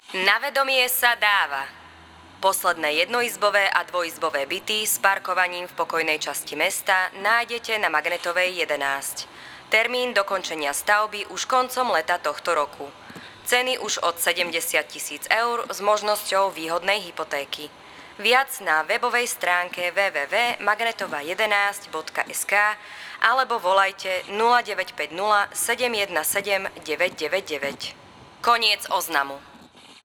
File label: VO Echo